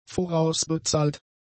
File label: "vorausbezahlt", German; adjectives